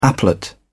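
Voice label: "applet", British English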